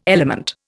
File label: "element", Indian